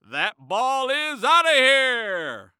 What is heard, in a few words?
Sports Human Voice Male Baseball Announcer